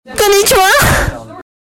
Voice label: uwu girl